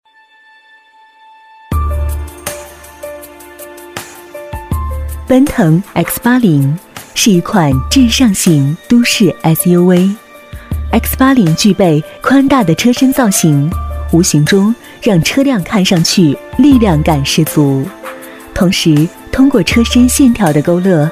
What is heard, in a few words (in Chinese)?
女 专题, 国语女声配音, 磁性; 奔腾X80